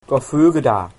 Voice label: good evening, Cornish

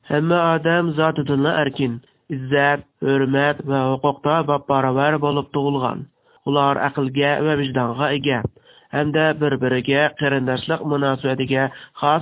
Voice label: udhr uyghur

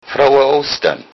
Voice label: easter, low saxon

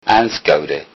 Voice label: good luck, low saxon

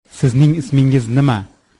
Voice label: name uzbek; Sizning ismingiz nima?

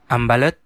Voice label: kadazandusun; ambalut

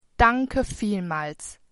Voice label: thanks, german

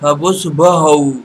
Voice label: good luck, odia

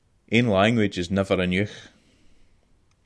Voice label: one language scots

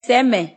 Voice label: "understand", Ewe

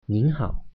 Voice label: "hello", Mandarin

